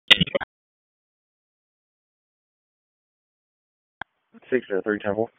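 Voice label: Police Dispatch; Wake Forest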